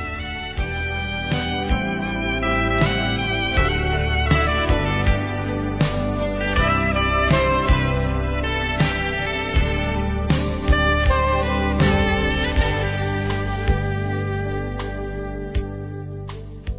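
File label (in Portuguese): Cliente amigo, Desculpas, Telemensagens, female; Telemensagens 2017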